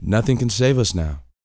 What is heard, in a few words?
TALK, Vocal, SCI-FI; 5 SCI-FI CHZ